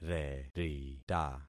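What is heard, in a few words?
"rerita", concatenated syllables; Affixing experiment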